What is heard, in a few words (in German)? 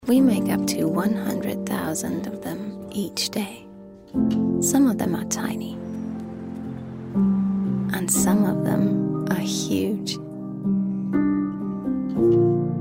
eng imagefilm